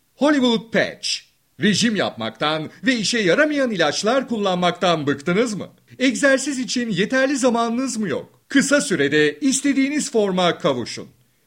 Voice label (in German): werbung